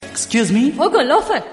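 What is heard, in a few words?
kannada excuse me sms ringtone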